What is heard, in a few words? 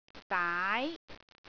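"daai2", Cantonese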